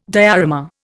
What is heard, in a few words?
"diorama", Indian